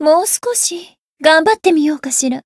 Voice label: growth, jp; toova